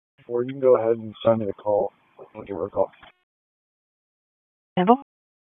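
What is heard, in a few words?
Police Northeast District Dispatch, p25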